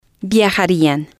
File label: "viajarian", spanish